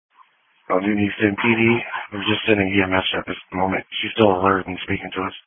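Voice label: Police Patrol Dispatch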